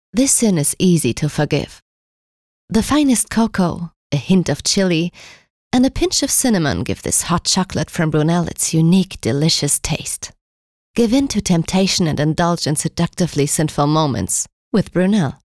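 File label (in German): US English, Sanft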